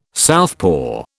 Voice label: "southpaw", British